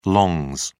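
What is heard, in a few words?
"longs", British English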